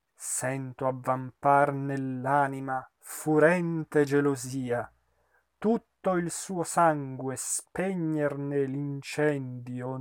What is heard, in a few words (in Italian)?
espressivo; Simon Boccanegra